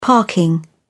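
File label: "parking", British English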